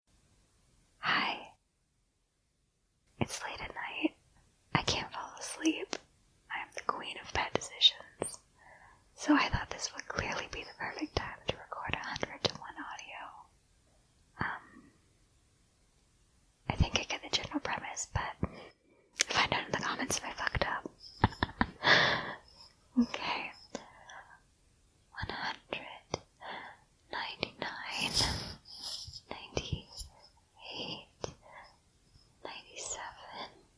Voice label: whisper, quiet, stuttering